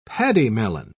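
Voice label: "pademelon", British English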